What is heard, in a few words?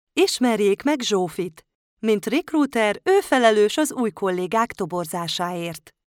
hungarian female explainer, Clear Upbeat Female Hungarian Voiceover